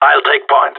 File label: follow me, radio; GSG9